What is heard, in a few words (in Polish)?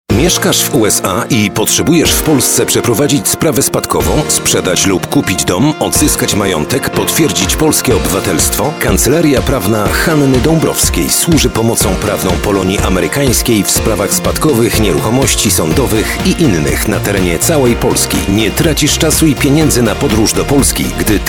spot radiowy, radio spot